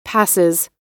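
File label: "passes", American English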